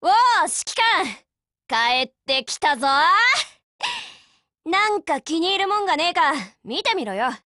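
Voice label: operation over, Japanese; HK512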